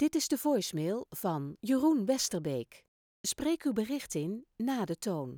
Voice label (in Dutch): Professionele voice mail begroeting